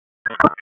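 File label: Raleigh Police Northeast District Tactical, p25; wake p25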